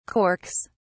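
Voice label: "corks", British English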